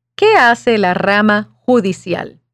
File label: Spanish